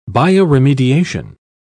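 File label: "bioremediation", British English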